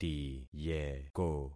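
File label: "tiyeko", concatenated syllables; Affixing experiment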